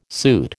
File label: "suit", American